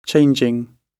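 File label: "changing", British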